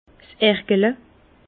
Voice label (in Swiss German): "ergele", Bas Rhin; vin; cuveau